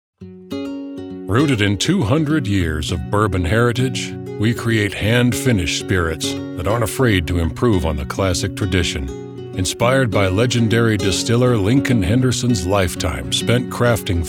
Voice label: CORPORATE